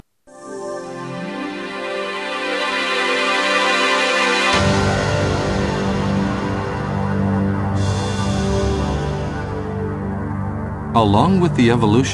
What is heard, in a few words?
usa man